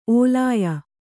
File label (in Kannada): "ಒಳಾಯ", Kannada